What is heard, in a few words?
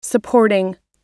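"supporting", American English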